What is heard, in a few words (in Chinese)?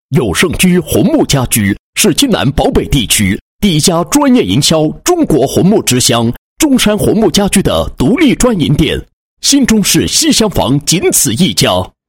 男 促销广告, 红木家居开业促销; 永盛居